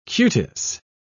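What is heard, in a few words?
"cutis", British English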